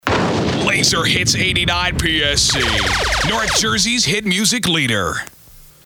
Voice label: SWEEPER